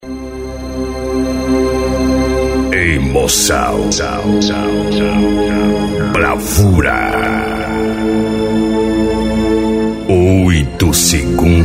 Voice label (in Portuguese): Locutor Rodeio